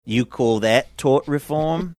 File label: you call that tort reform faux australian